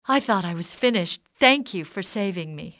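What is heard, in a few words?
thank, english female; XBOX; civ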